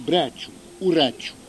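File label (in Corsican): "bracciu", Corsican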